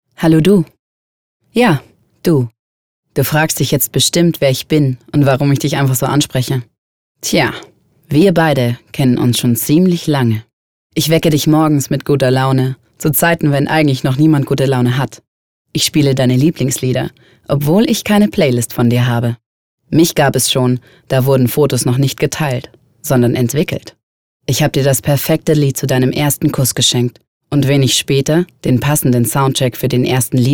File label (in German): Imagetext Radio